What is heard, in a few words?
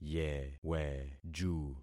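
"yeweju", concatenated syllables; Affixing experiment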